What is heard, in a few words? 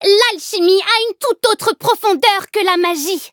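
loading, French; Cookie Run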